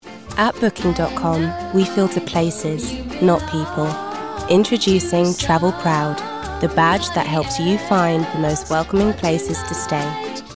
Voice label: UK; Travelcrowd